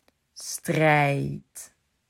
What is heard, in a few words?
"Strijd", Dutch